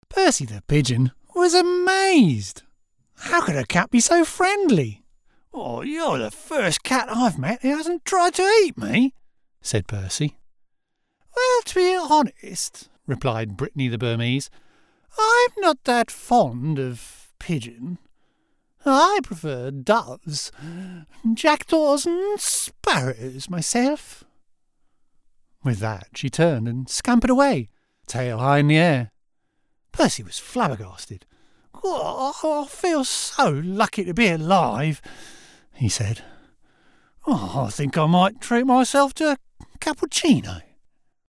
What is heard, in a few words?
CHILDRENS STORY, voice-over